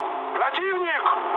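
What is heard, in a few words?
Russian enemy detected mood med, speech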